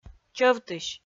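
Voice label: "чӧвтысь", Komi, female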